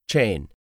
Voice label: "CHAIN", English; 3000 words

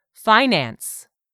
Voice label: "finance", English; 3000 words

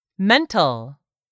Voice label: "mental", English; 3000 words

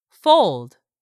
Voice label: "FOLD", English; 3000 words

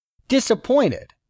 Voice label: "DISAPPOINTED", English; 3000 words

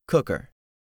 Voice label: "cooker", English; 3000 words

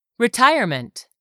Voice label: "retirement", english; 3000 words

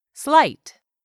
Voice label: "SLIGHT", english; 3000 words

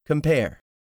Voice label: "compare", English; 3000 words

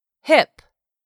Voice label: "hip", English; 3000 words

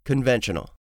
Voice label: "CONVENTIONAL", English; 3000 words

